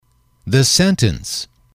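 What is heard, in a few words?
"the sentence", English, male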